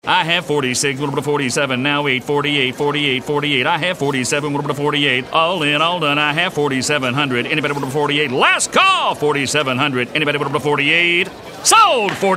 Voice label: authoritative

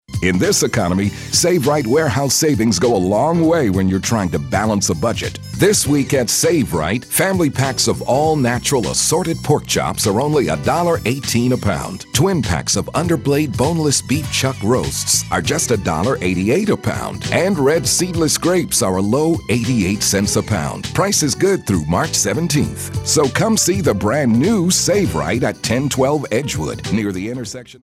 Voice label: african american announcer

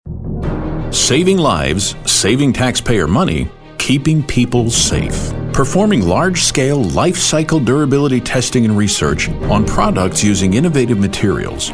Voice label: Authoritative

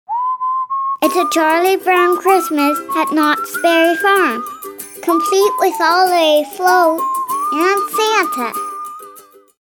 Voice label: Kid Next Door Upbeat

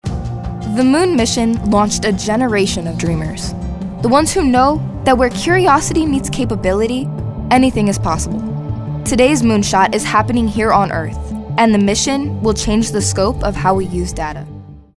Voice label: motivational

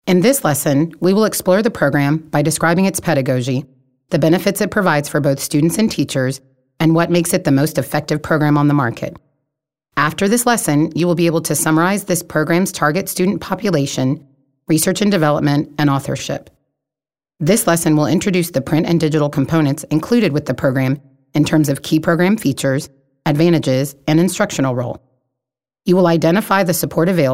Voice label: Informative

